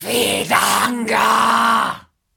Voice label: Female Taunt, Cannibal, dialogue